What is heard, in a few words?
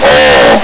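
"are", male; hl2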